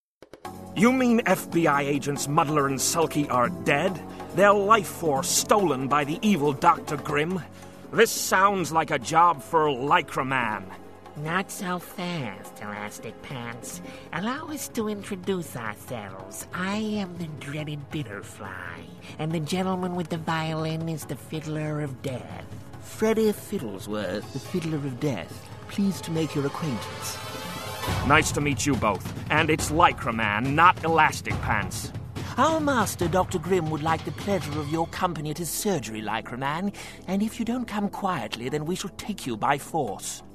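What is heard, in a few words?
Animation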